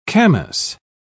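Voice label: "camas", British English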